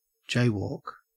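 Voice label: "jaywalk", Australian English; pronunciations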